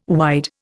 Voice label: "wide", Indian